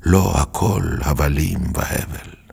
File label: Lo hakol creaky